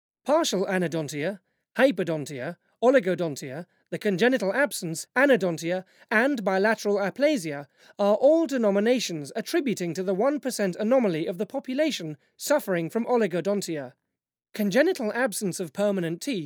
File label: Medical Voice reel